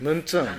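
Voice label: "muntun", Minamiyamagata dialect Japanese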